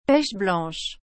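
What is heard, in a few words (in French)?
"pêche blanche", French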